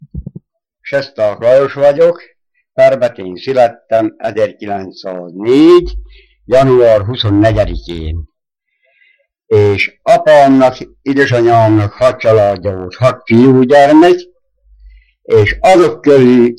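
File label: dialect collection; nyelvjaras gyujtesek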